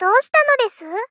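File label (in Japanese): Moe Call Do shita nodesu, moe loli girl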